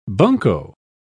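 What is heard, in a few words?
"bunko", British English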